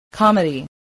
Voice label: "comedy", voice